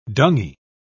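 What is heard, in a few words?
"dungy", British English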